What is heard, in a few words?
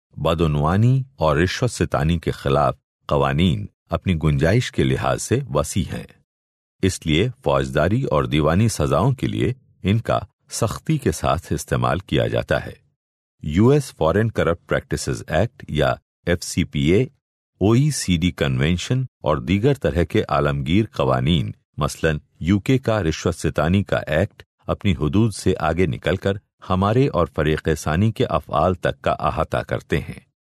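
Urdu, male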